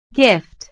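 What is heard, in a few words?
"gift", English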